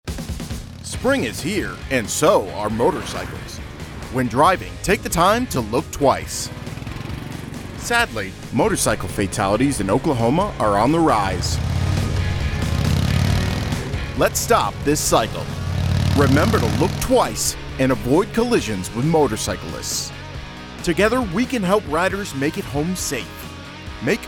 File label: radio spot; look twice